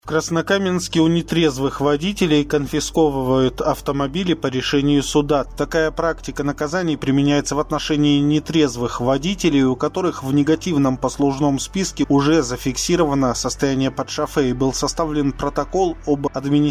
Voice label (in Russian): Диктор к новости; о конф. авто